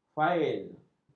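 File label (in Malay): "fail", Malay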